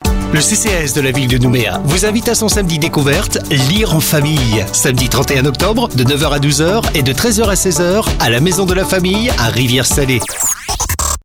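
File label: French, male, commercial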